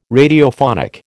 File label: "Radiophonic", American